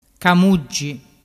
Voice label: "camuggi", dialettu

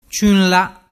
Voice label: "ciunla", dialettu

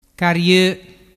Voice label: "cario", dialettu

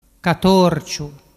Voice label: "catorciu", dialettu

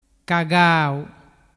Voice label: "cagau", dialettu

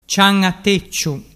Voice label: "cianatecciu", dialettu

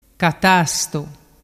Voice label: "catastu", dialettu

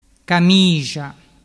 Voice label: "camixa", dialettu

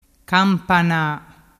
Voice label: "campana", dialettu

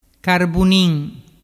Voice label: "carbunin", dialettu

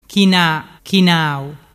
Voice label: "china chinau", dialettu

